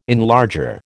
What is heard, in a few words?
"enlarger", American